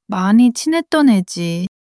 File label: sadness, monologue